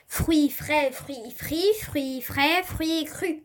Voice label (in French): Virelangue